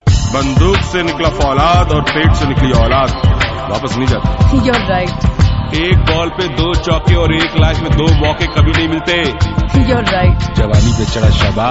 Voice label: Bollywood Comedy Dialogue, Funny Mobile Ringtone; Bandook Se Nikla Faulad